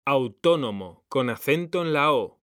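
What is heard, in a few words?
"autónomo", Spanish, male; mekanta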